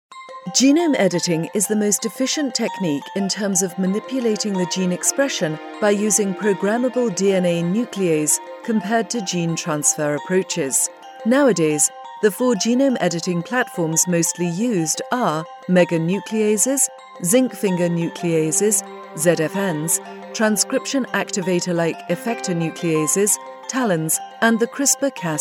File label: Genome Editing, british voice